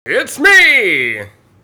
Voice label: Announcer - Its Me; blackjack; Intro Voices

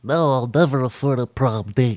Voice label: Nerd Lose; blackjack

